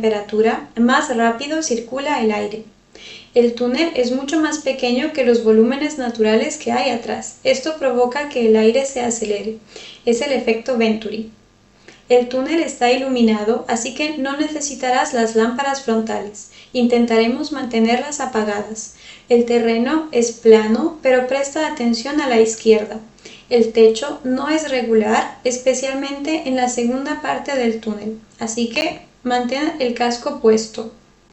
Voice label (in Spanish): entrada tunel, audioguide, espagnol; la verna